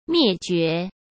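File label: "灭绝", Mandarin Chinese; chinese flashcards; hsk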